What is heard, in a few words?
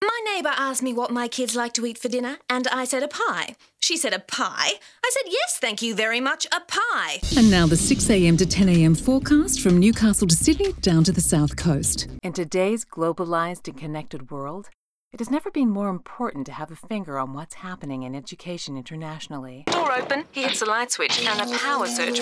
Acting